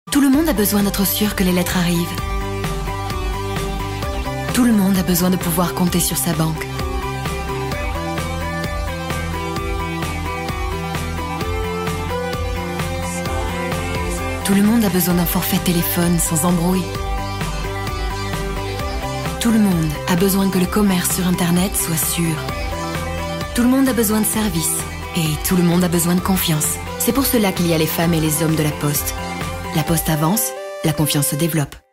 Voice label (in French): PUB WEB Voix chaleureuse jeune; La Poste